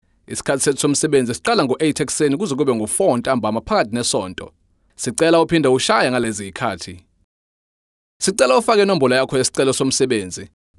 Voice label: Zulu Male